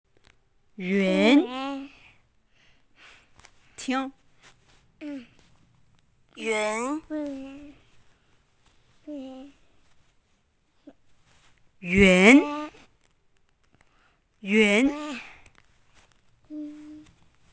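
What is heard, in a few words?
"yun2", Mandarin Chinese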